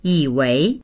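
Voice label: "yi3wei2", Mandarin Chinese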